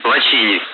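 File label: Speech, Russian, air traffic control; Callsign; Lochini; DCS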